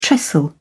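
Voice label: "trestle", British English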